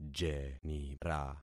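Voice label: "jenira", concatenated syllables; Affixing experiment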